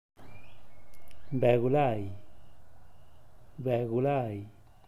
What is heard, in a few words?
"beguh lai", zapoteco teotitlan